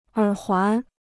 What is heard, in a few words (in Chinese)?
"耳环", Chinese